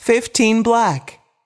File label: voice over, roulette; frenchroulette3